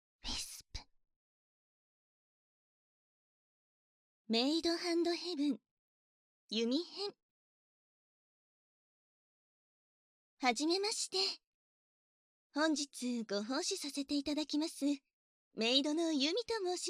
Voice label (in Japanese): タイトルコール, ASMR